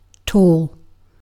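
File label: "tall", British English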